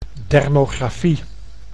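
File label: "dermografie", Dutch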